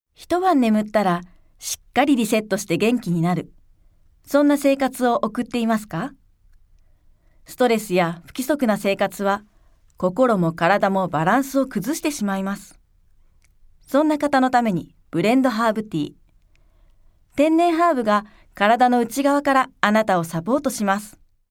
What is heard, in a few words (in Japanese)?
お茶CM